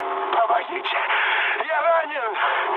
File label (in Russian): damaged pilot, mood med, speech ru; War Thunder